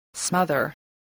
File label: "smother", voice, spelling